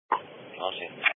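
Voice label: Police Patrol Dispatch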